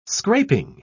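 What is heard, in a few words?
"scraping", British English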